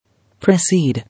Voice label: "Precede", English